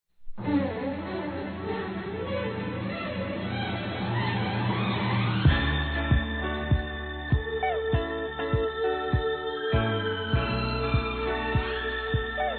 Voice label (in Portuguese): conquista, masc; Telemensagens; Conquistas Cantadas paqueras; Telemensagens 2017